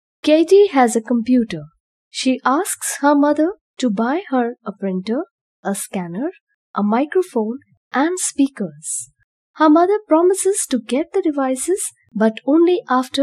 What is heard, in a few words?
dialog; PeaksLevelUp; lms computer; ComputerainBrain